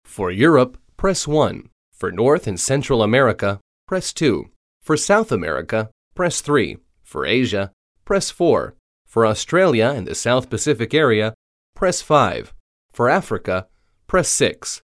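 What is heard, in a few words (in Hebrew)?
English, קריינות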